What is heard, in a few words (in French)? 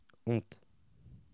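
"honte", French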